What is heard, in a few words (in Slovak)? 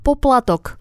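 "poplatok", Slovak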